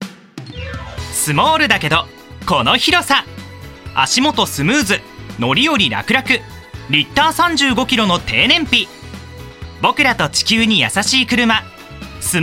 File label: Car CM; ボイスサンプル